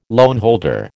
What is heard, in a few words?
"loanholder", American